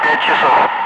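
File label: Russian speech, Ka-50 Wingman; DCS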